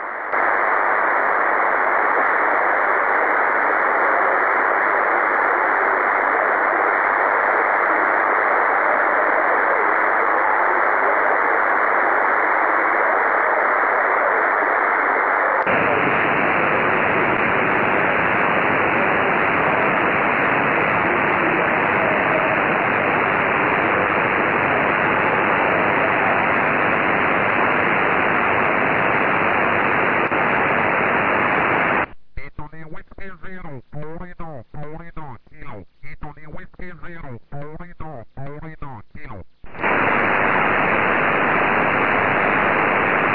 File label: 4m CQ SSB